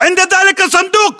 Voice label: landmark near crate, battle chatter, english